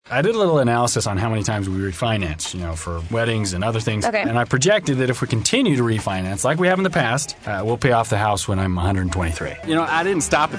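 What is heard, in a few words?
commercial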